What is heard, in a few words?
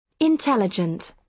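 "intelligent", British English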